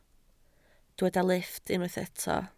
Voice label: "lifft", Welsh

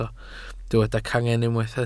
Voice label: "cangen", Welsh

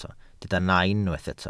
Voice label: "nain", Welsh

